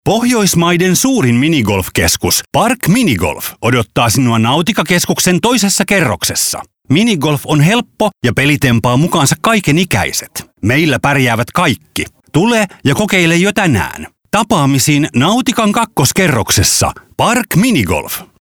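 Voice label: Voice Over men Finnish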